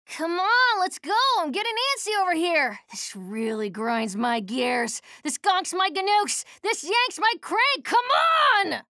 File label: menu chatter; slash; respawn